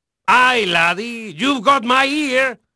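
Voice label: Spanish male, voice; Pirates Of The Caribbean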